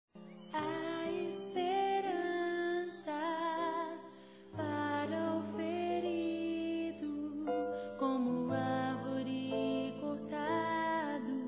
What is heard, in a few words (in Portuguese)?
Otimismo Feminino; Aniversários; ANIV. Evangelico